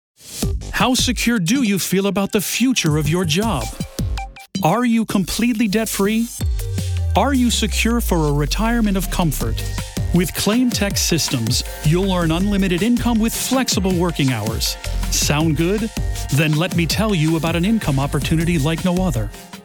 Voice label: narration informative